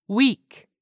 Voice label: "week", English; 3000 words